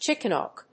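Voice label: "chicken hawk", English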